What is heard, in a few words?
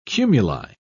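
"cumuli", British English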